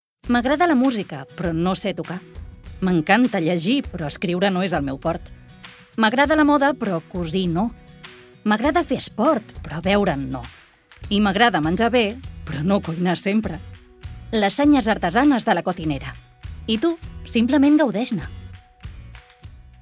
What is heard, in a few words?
female catalan comercial; ideasonora